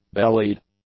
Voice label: "bellied", English; adjectives